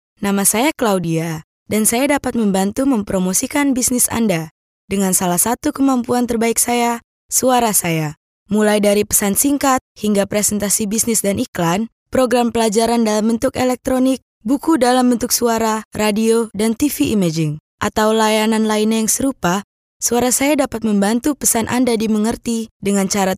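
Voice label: Indonesian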